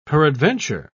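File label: "peradventure", British English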